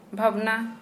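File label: Santali female sadly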